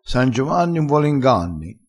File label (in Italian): "san giovanni", vocabolario dialettale, crespinese